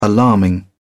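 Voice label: "alarming", British English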